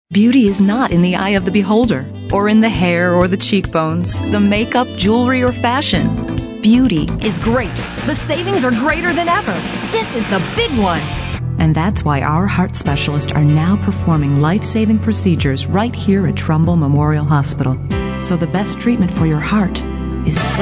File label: Corp Commercial Voice Over